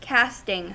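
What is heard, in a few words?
"casting", US English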